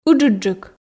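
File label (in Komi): "ыджыдджык", Komi, female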